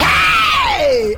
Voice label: "hey", fake

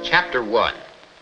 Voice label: chapter one male; numbers and letters

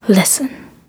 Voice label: listen female; Voice; introductions

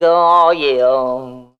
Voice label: "ah yeah" redneck guy

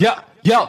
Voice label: "yuh yo", Voice; ooh ahh

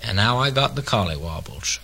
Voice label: gibbrish, voice

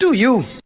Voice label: "do you", british bloke; questions

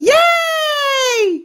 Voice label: "yay", child; ooh ahh

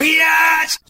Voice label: "bitch", expletives

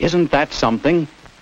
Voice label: "isn't that something", male; Voice

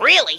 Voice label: really cartoon; questions

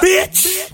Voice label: "bitch", expletives

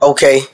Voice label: "okay", expletives

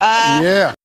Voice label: "ah yeah" men alternate; ooh ahh

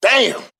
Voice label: "damn", expletives

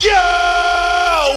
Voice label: "yo" long, voice; ooh ahh

